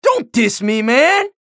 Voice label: chatter negative; anarki; respawn

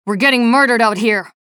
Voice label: chatter negative; nyx; respawn

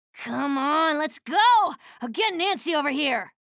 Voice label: menu chatter; slash; respawn

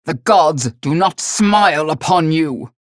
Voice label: chatter positive; galena; unholy paladin; frag

